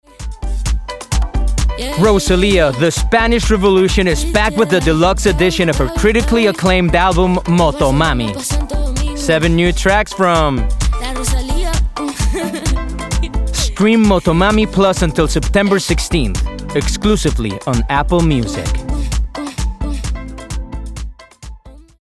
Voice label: Promo, Commercial, Upbeat, English

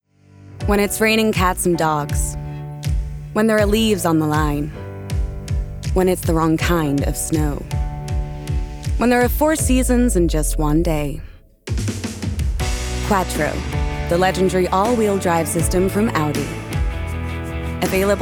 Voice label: American Accent, Commercial, Bright, Upbeat

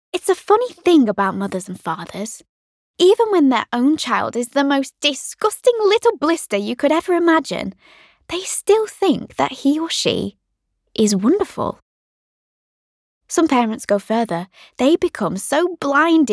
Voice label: Audiobook, Manchester, Friendly, Warm, Confident

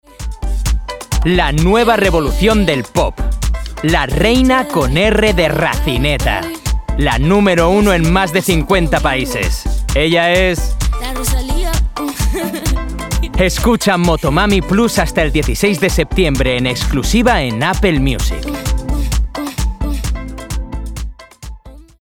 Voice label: Promo, Commercial, Upbeat, Spanish

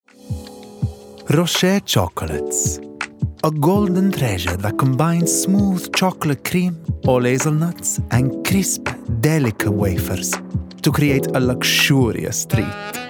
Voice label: Smooth, Sexy, Cool, Italian; Ferrero Rocher

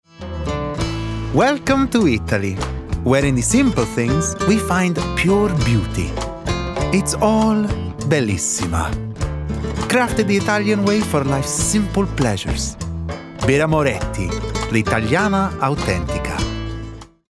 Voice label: Commercial, Bright, Upbeat, Italian; Birra Moretti

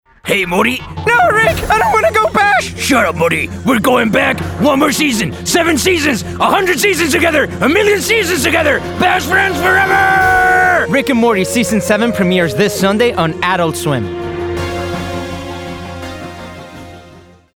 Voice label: Animation, Impression, Acting, Comedy, English; Rick And Morty

